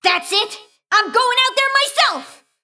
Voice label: Female Mecha Gnome